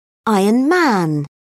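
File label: "iron man", British English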